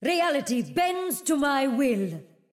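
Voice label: English; 秩序之光（守望先锋）; 终极技能; 友方释放语音; 英语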